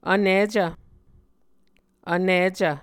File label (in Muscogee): "vnicv", Muscogee